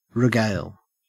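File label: "regale", Australian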